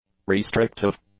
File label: "restrictive", English; adjectives